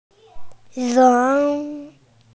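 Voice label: "ren2", Mandarin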